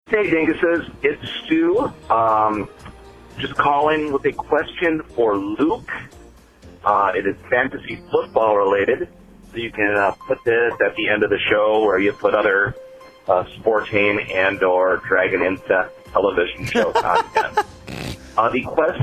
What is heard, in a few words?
voicemail message